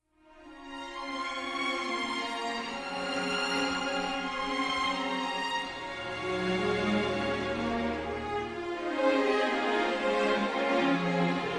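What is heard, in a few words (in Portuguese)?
RECONCILIAÇÃO, Masculino; Telemensagens; Dia Dos namorados; Telemensagens 2017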